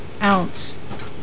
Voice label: "ounce", voices